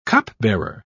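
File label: "cupbearer", British English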